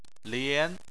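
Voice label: "lian", Chinese, second tone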